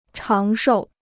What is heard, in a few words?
"长寿", Chinese